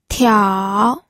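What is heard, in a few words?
"tiao3", Mandarin Chinese pinyin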